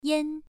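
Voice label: "yan", Mandarin Chinese, first tone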